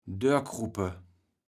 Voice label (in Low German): "dörkruppe", kaevels platt